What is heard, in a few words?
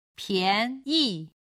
"pian2yi5", chinese